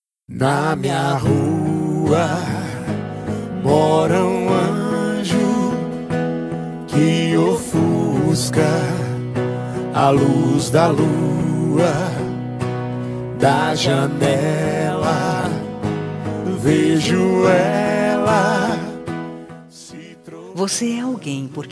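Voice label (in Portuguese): birthday PARA EX female, telemensagem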